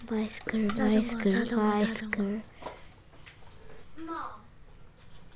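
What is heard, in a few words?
"bicycle", spoken word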